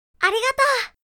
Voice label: "Thank you" anime girl character, japanese voice over